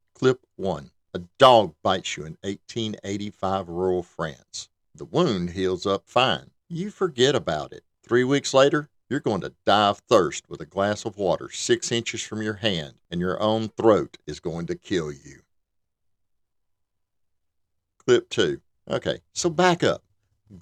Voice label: Podcast Style Audio App, Podcast Host Voice Sample; Inverse Gratitude